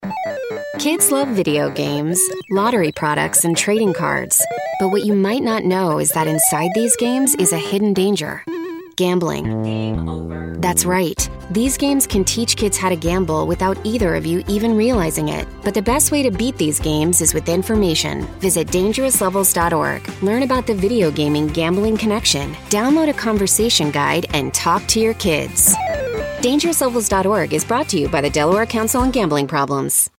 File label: PSA WARM CONCERNED INFORMATIVE; Gaming